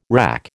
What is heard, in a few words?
"RAC", American